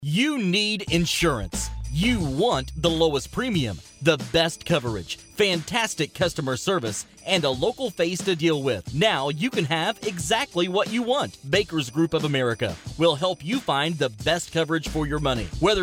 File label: commercial